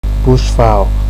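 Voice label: "bush fowl", Nigerian Pidgin, male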